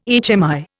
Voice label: "HMI", Indian

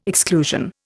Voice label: "exclusion", Indian

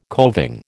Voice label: "coving", American

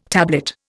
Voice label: "tablet", Indian

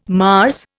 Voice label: "Mars", Indian

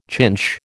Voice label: "chinch", American

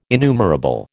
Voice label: "innumerable", American

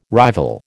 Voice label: "rival", American

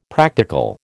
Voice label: "practical", American

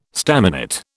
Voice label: "staminate", British

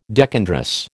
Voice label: "decandrous", American

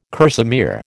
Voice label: "kerseymere", American

